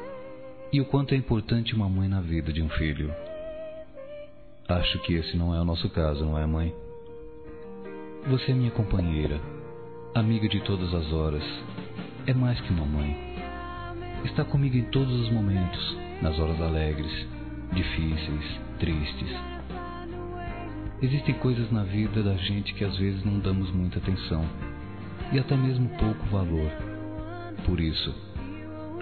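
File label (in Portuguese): MÃE, male, Telemensagens Dia das Mães; Masc; Telemensagens 2017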